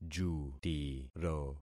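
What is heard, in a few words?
"jutiro", concatenated syllables; Affixing experiment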